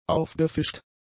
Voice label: "aufgefischt", German; adjectives

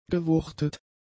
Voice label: "gewuchtet", German; adjectives

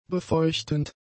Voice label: "befeuchtend", German; adjectives

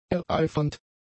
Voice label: "ereifernd", German; adjectives

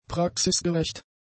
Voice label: "praxisgerecht", German; adjectives

